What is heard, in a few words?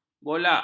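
"bolak", Malay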